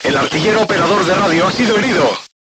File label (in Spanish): voice message machine gunner wounded, spanish; crew tanks